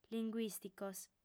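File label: "linguìsticos", female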